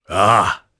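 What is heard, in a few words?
Vox Aff Japanese; Ricardo; kingsraid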